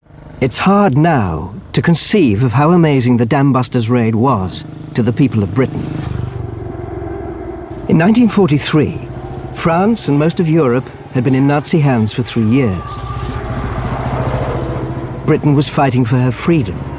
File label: Documentary